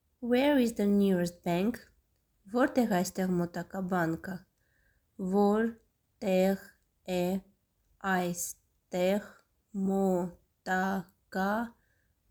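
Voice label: English Where is the nearest bank Armenian Vortegh e aystegh motaka banky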